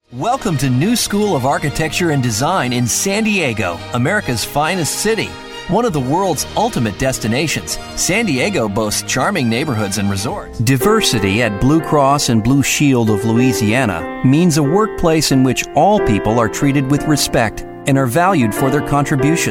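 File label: Narration, Voiceover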